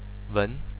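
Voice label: "wen", Chinese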